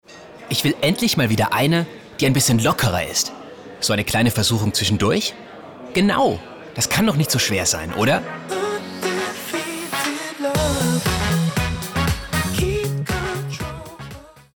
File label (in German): Mann